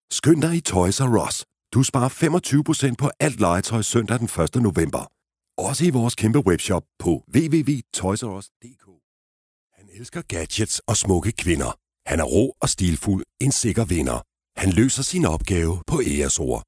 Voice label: male danish voice over sales